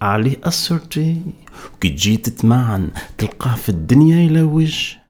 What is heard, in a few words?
POET, POET VOICE, Arabian Traditions